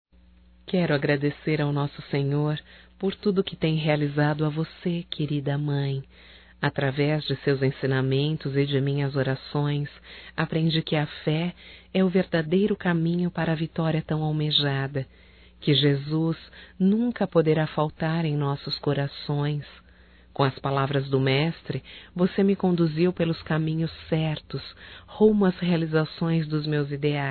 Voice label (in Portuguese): EVAN MãE, Telemensagens, Evangelico, fem; Telemensagens 2017